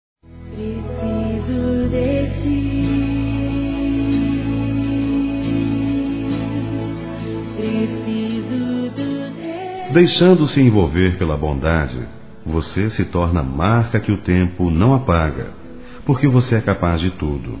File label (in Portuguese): Evangélico Aniversário Pastor Masculino